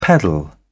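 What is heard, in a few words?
"peddle", English, British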